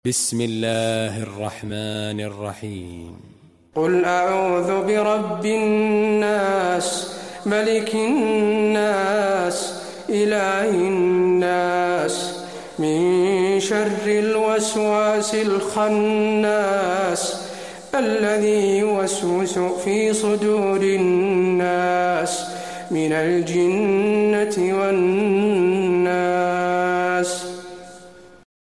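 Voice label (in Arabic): الناس, تلاوة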